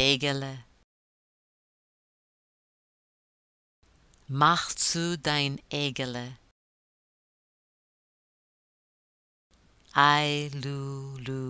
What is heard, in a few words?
Shlof Mayn Feygele pronunciation drill